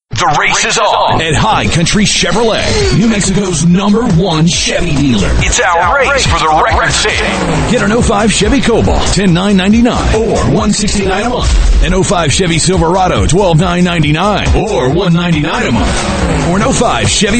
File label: COMMERCIALS, Voiceover; Hi Country Chevrolet